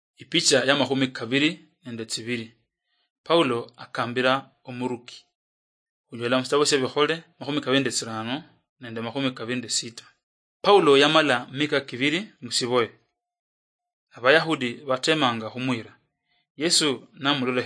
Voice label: acts of the holy spirit luyia marachi, drama